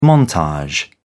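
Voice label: "montage", British English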